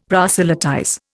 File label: "proselytize", Indian; Dictionary